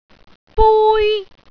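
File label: "bui1", Cantonese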